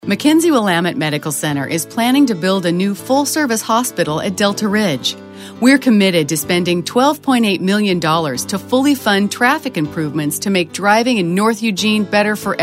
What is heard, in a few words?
Warm Natural